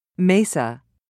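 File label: "mesa", British English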